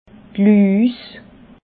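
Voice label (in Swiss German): "luus", Alsatian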